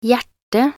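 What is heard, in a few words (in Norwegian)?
"hjerte" Norwegian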